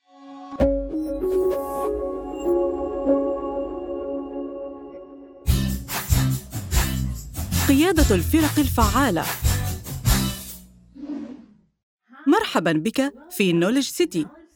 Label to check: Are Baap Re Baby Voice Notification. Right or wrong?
Wrong